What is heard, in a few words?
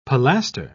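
"pilaster", British English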